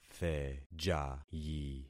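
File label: "thejayi", concatenated syllables; Affixing experiment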